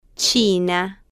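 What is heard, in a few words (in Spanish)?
"china", Spanish, female, slow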